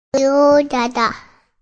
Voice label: Toddler "Yo Dada!"; Children Sound Effects

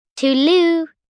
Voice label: "Toodloo", Greeting, 1 girl, 9 yrs; Talking; VoiceBox

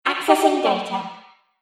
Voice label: Robot Voice Accessing Data

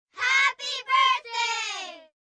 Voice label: Group Birthday, Occasion, Group, 3-5 children; Crowd; Voice Box

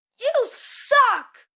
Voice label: You Suck, Attitude, 1 boy, 10 yrs

